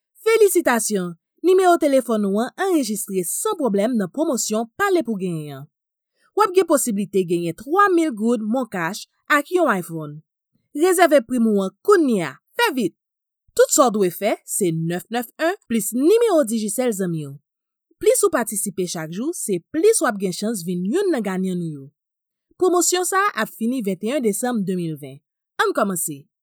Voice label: Commercial